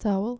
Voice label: "towel" dutch